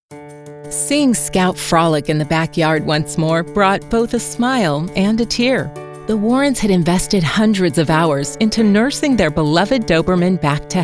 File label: english us and canada female narrative